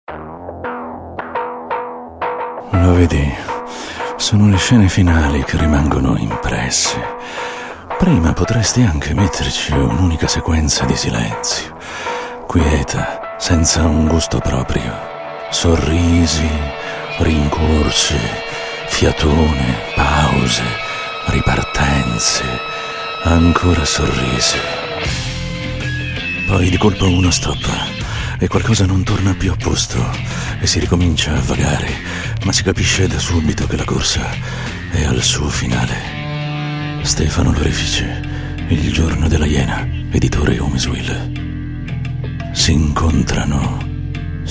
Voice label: booktrailer